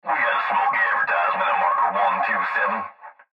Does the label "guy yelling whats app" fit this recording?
No